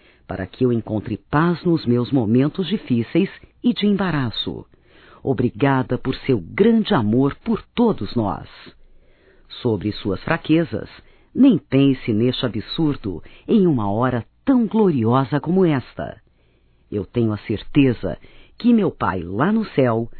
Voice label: ANIV PAI, Telemensagens, Evangelico, fem; Telemensagens 2017

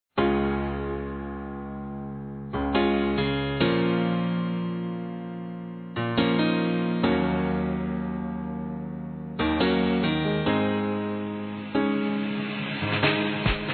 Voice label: AMANTE F; Aniversários; Aniversários diversos; fem

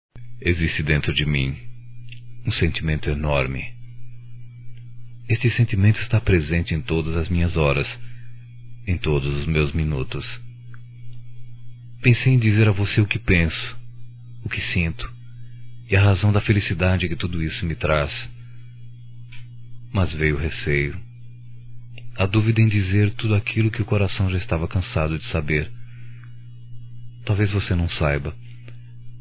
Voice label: RECONCILIAÇÃO ROMÂNTICA, Telemensagens, masc

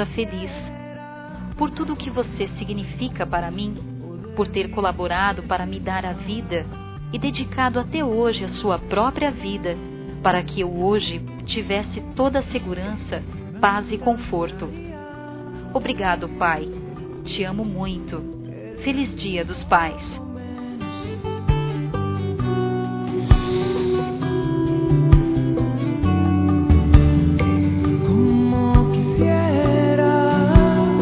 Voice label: AMIGO E COMPANHEIRO F; Telemensagens 2017

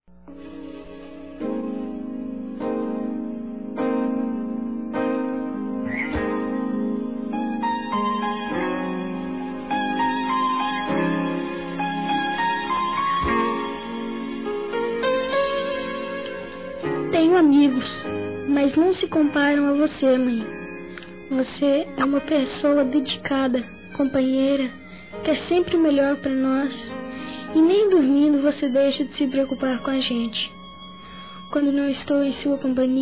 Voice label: Aniversáro Mãe Inantil Masculino; Telemensagens 2017; Aniversários; Aniversário Infantil

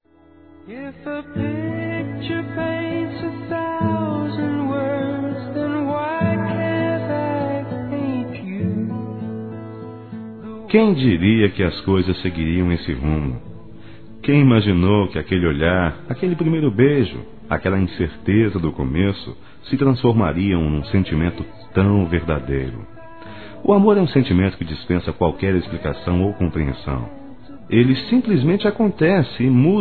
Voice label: Nosso Noivado Masculino, Telemensagens; Parabenização

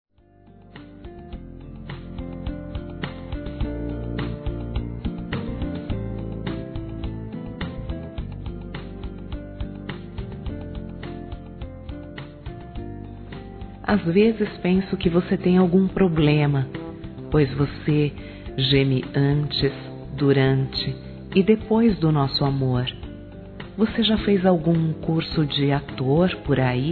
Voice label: TEU GEMIDO, fem; Telemensagens; Sensuais

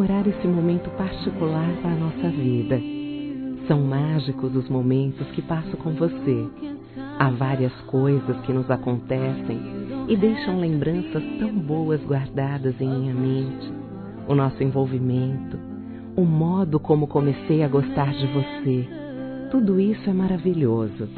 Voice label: Romantica Data Especial Feminino; Telemensagens; Românticas

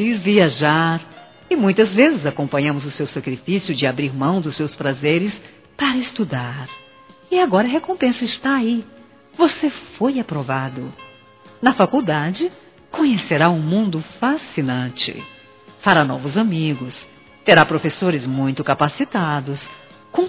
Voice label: Vestibular filho Feminino; Telemensagens; Formaturas; Telemensagens 2017

